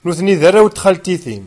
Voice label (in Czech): berberština